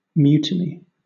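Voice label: "mutiny", British English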